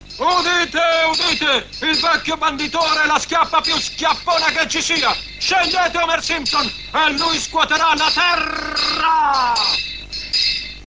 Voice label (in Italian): banditore